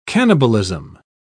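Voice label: "cannibalism", British English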